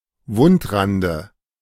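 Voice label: "Wundrande", German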